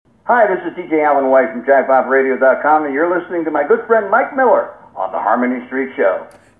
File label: promo